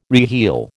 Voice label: "reheel", American

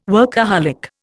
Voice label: "workaholic", Indian

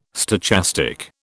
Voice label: "stochastic", British

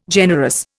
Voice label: "generous", Indian

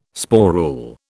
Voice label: "sporule", British

